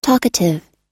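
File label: "talkative", US English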